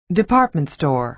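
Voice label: "department store", American English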